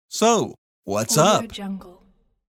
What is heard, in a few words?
male voice, "so whats up"